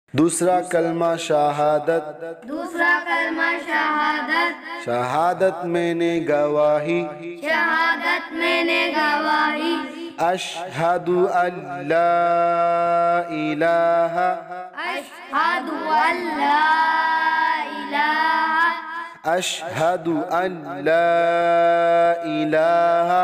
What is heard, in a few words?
Second Kalma in Arabic; Kalma Shahadat; Dusra Kalma